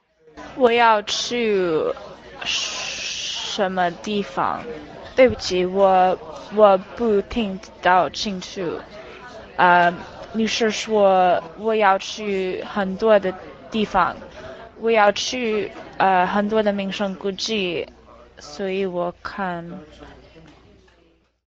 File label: sample chinese conversation